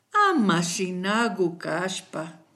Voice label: please, kichwa

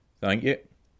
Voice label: thanks, scots

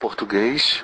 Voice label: portuguese

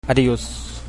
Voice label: goodbye, chabacano

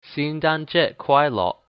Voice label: christmas, hakka

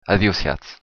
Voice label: hello, occitan

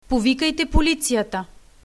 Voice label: police, bulgarian; Повикайте полицията!; Povikajte policijata!; Call the police!

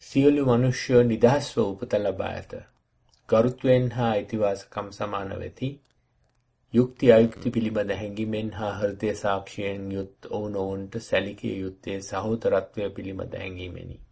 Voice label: Sinhala

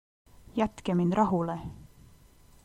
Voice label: leave me alone, estonian

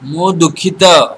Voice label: sorry odia

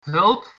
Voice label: help, dutch

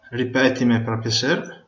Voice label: say again, venetian

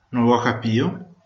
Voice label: no understand, venetian

